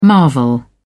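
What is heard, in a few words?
"marvel", British English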